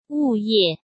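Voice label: "物业", Mandarin Chinese; hsk; chinese-flashcards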